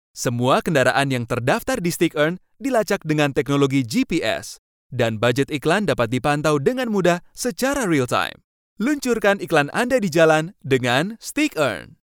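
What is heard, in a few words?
Indonesian, male, commercial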